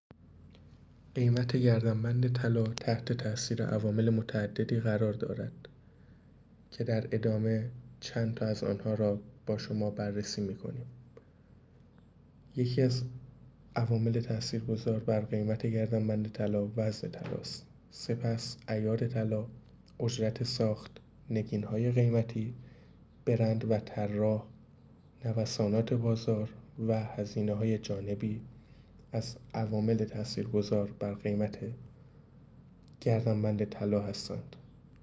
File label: voice msg